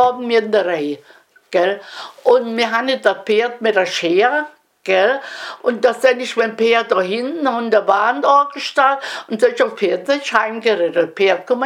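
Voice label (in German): arbeit mit dresch und maehmaschine, O-Ton Hunsrück; Mörsdorf; Arbeit mit Dresch- und Mähmaschine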